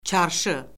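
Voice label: "çarşı", Azerbaijani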